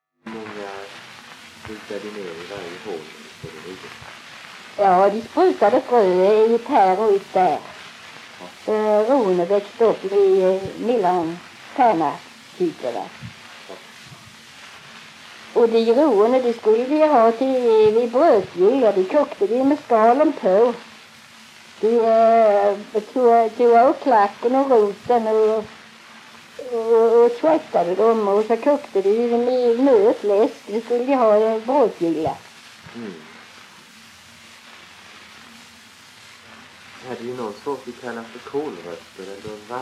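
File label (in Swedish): Tving Blekinge, Svenska sagor dialekter; Folkminnen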